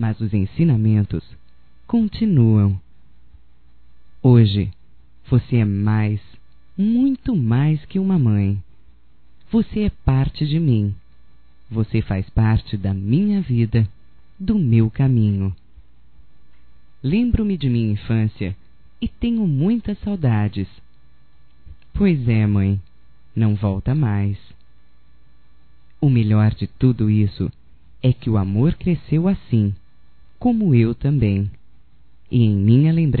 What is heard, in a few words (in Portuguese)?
Dia das Mães tempo de criança, telemensagens; Telemensagens 2017